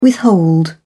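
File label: "withhold", English, British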